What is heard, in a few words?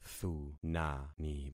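"thunani", concatenated syllables; Affixing experiment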